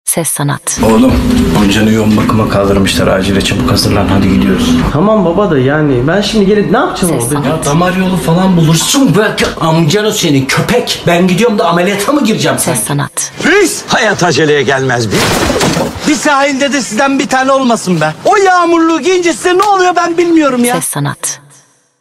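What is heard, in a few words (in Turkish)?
TR, Seslendirme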